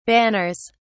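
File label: "banners", British English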